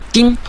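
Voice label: "jing", Mandarin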